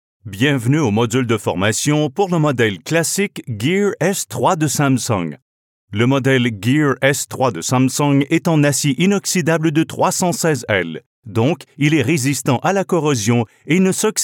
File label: french canadian corporate videos